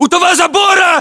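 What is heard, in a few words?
landmark near fence, battlechatter, Russian; localized english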